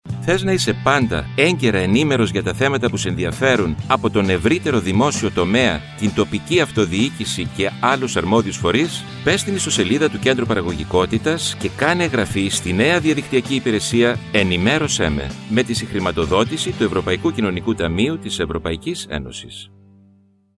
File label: radio spot